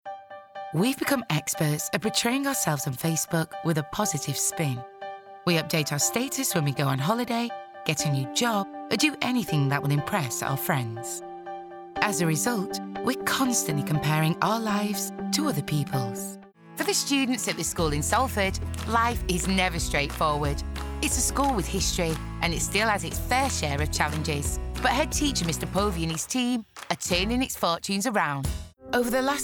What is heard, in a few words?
Narrative Reel